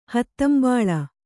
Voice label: "ಹೂದಂಬುಲ", Kannada